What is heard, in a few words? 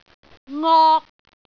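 "ngok3", Cantonese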